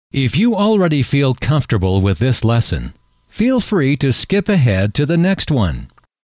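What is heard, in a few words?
if you already feel comfortable with this lesson, male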